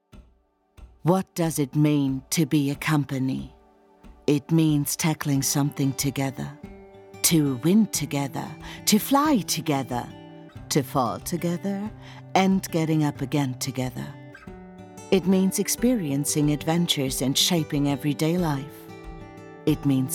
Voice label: english corporate videos